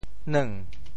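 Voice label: "neung2", Teochew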